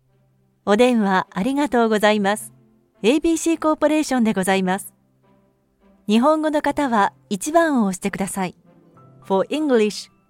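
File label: Japanese tel IVR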